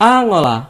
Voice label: "angola", id; gcompris-data; geography

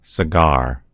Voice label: "cigar", en US; gcompris

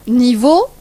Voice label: "level", fr; gcompris

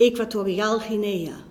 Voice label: equatorial guinea, nl; gcompris-data; geography

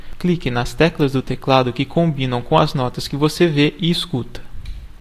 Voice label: play piano, pt_BR voices; gcompris

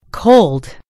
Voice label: "cold", en GB; gcompris

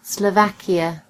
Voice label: "slovakia", en GB; gcompris

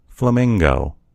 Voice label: "flamingo", en US; gcompris

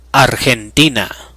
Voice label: "argentina", en; gcompris-data; geography

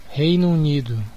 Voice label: "united kingdom", pt BR; gcompris; geography

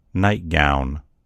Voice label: "nightgown", en US; gcompris

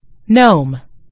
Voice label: "gnome", en US; gcompris

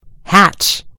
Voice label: "hatch", en GB; gcompris

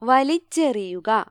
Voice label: "throw", ml; gcompris

